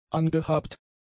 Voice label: "angehabt", German; adjectives

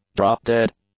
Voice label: "drop-dead", English; adjectives

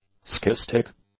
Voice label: "ski stick", english; nouns

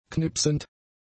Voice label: "knipsend", German; adjectives

